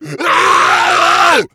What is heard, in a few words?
pain; nuke; redead